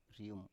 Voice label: "rium", Biak